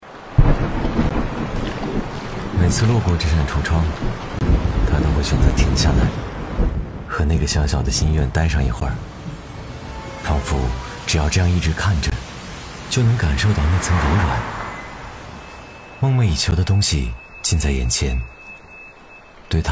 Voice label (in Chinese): 男 广告; 品牌形象; 特步x五芳斋广告